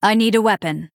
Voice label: Female Night Elf error need weapon